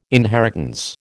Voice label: "inheritance", American